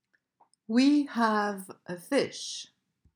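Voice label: "We have a fish", English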